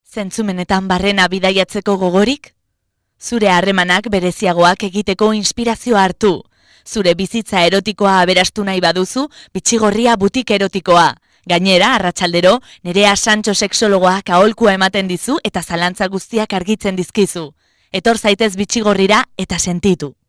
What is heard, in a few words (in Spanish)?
locutora euskera